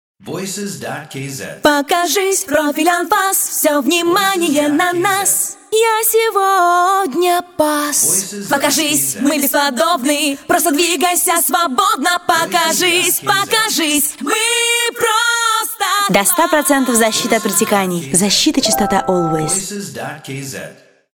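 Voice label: Russian vocal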